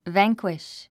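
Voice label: "vanquish", English word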